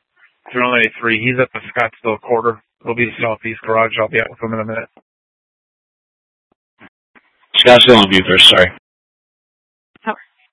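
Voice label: police dispatch, Scottsdale PD; Simulcast H- Scottsdale; Nth Dispatch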